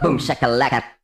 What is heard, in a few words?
"boom shakalaka", cartoon